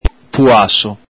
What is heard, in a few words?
"puaso", Venetian